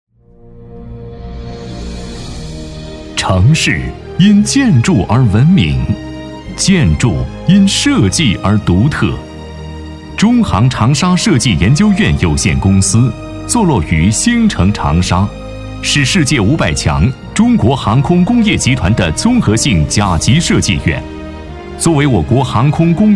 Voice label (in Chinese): 男 专题; 航空工业设计研究院